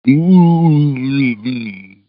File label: mumble